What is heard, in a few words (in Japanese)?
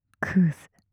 "クズ" 強く 極近 右, ASMR; マゾオスのあなたに敗北射精の快楽を叩き込む音声